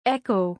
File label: "Echo", English